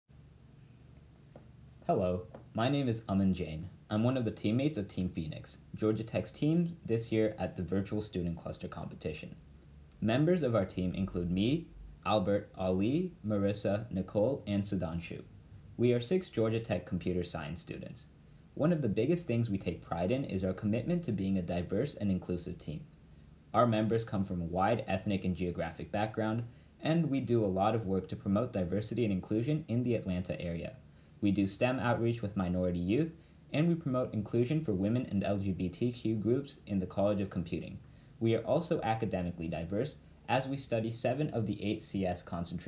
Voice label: Voiceover; ClusterComp 2020